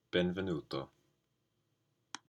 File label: Welcome Italian